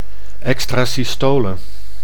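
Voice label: "extrasystole", Dutch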